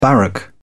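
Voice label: "barrack", British English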